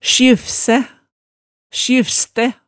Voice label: "sjyfsæ", hemsedalsmaalet

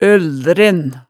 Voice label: "øldren", hemsedalsmaalet tale

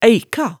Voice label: "æika", hemsedalsmaalet tale

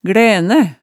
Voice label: "glænæ", hemsedalsmaalet

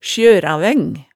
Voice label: "sjøraveng", hemsedalsmaalet

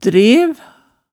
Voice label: "drev", hemsedalsmaalet

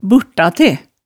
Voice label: "burtate", hemsedalsmaalet tale

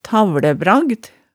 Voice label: "tavlæbragd", hemsedalsmaalet tale

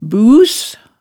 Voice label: "bus", hemsedalsmaalet tale

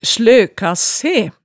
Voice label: "sløka se", hemsedalsmaalet

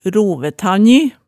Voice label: "rovætanji", Hemsedal

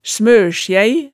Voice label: "smørsjæi", hemsedalsmaalet

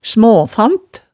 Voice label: "smaafant", hemsedalsmaalet

